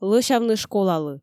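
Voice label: "лӧсявны школалы", Komi, female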